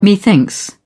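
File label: "methinks", English, British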